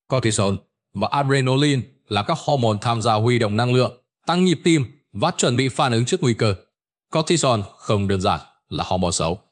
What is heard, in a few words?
narration; Vi Sao Nao Bo Danh Thuc Ban Truoc Nguy Hiem; StickerMan